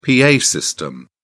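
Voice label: "pa system", British English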